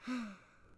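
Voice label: Settler Child Fort Vox; Civ4 warlords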